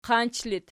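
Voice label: "qanch" locative, Archi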